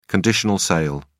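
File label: "conditional sale", British English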